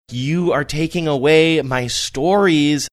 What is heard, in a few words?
saying you are taking away my stories sing songy